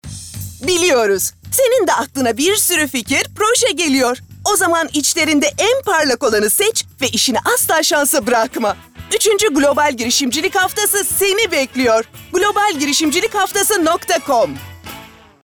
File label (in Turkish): Neşeli